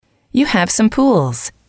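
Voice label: you have some pools, English, female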